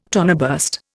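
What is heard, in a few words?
"toneme", Indian; Dictionary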